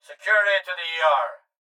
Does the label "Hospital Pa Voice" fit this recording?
Yes